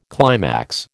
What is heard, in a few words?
"climax", American